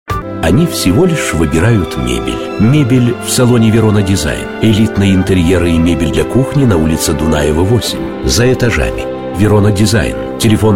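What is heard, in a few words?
russian male commercial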